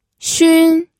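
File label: "xun1", Mandarin Chinese pinyin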